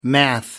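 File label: "math.", British English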